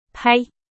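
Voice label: Chinese, vocabulary; hsk 3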